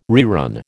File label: "rerun", American